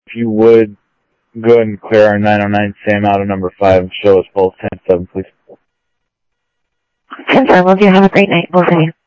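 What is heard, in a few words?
Sheriff Lake Patrol, scanner audio trunk; MCSO Lakes 1 SheriffLakePatro; ThompsonPeakScot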